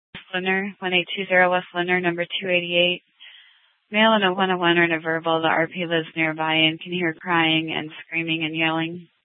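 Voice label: police dispatch radio, scanner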